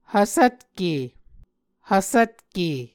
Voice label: "hvsvtke", Muscogee

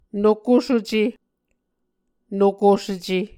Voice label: "nokosuce", Muscogee

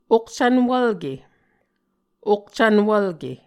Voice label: "okcvnwvlke", Muscogee

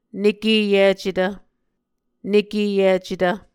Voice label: "nekeyicetv", Muscogee

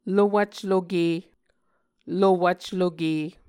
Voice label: "lowvcloke", Muscogee

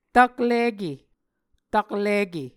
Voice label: "taklike", Muscogee

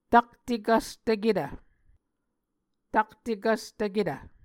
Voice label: "taktekvstvketv", Muscogee

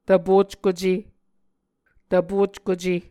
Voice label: "tvpockuce", Muscogee; Mvskoke Words with Voicing Consonants; A Dictionary of Creek/Muskogee; SIL International

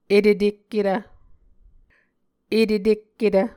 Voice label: "etetekketv", muscogee; Mvskoke Words with Repeated Consonants; Onvkv Ohhvyayices; A Dictionary of Creek/Muskogee; SIL International

